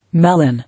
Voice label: "Melon", English

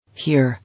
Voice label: "Here", English

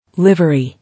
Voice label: "Livery", English

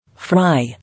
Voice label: "Fry", English

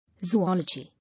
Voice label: "Zoology" English

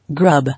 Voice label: "Grub", English

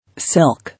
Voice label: "Silk", English

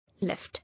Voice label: "Left", English

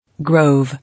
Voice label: "Grove", English

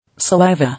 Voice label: "Saliva", English

